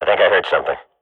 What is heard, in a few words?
radio bot hear; Counter Strike Model Pack; CS:GO